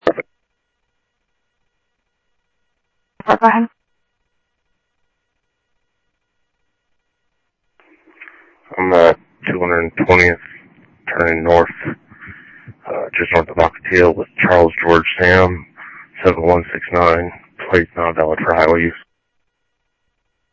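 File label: Sheriff East County, scanner audio; ThompsonPeakScot; MCSO - EAST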